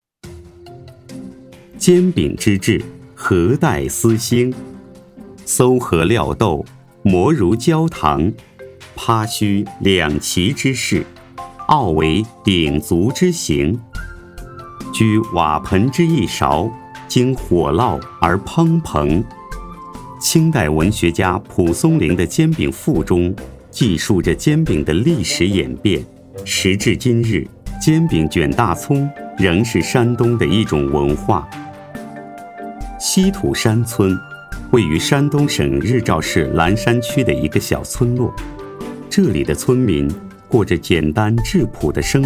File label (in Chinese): 山东煎饼解说